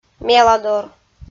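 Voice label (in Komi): "меладор", Komi-Zyrian, female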